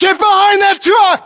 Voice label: order cover truck, US